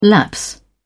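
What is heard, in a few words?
"lapse", British English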